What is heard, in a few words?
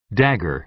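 "dagger", American English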